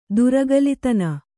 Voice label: "ದುರಗಲಿತನ", Kannada

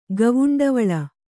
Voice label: "ಗವುಂಡವಳ", kannada

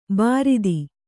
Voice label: "ಬಾರೂದು", kannada

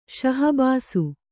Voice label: "ಶಾಹಬಾಸು", Kannada; śahabāsu; interjection; = ಶಹಭಾಸ್

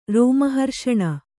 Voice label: "ರೋಮಹರ್ಷಣ", Kannada

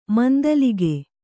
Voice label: "ಮಂದಲಿಗೆ", Kannada

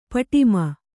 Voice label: "ಪಡಮ", Kannada

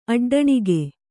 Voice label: "ಅಡ್ಡಣಿಗೆ", Kannada

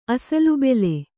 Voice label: "ಅಸಲುಬೆಲೆ", Kannada

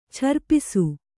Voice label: "ಛರ್ಪಿಸು", Kannada

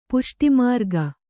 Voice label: "ಪುಷ್ಟಿಮಾರ್ಗ", Kannada

